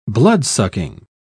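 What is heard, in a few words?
"bloodsucking", British English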